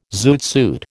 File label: "zoot suit", American